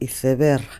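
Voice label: "iceberg", Spanish, Santiago de Compostela; Galicien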